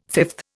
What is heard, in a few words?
"fifth", Indian